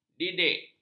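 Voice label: "didik", Malay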